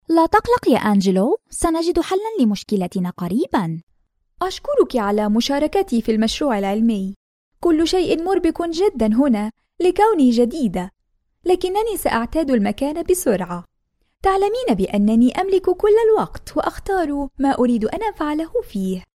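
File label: Arabic Saudi Arabia, female, voice over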